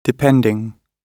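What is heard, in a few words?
"depending", British English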